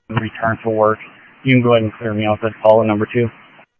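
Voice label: Sheriff West County, scanner audio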